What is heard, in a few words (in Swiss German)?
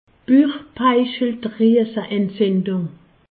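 "buchspeicheldrieseentzindung", Alsatian; medecine; pancréatite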